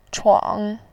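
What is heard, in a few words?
"chuang", Mandarin Chinese, third tone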